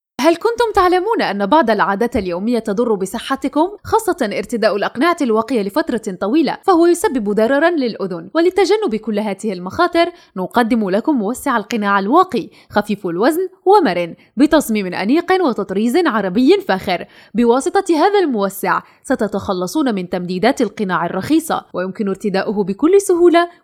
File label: Arabic, Female